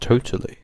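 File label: "totally", British English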